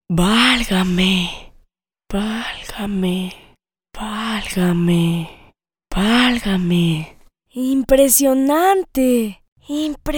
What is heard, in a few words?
Television spots